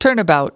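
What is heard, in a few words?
"turnabout", US English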